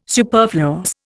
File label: "superfluous", Indian